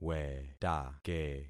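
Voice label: "wetake", concatenated syllables; Affixing experiment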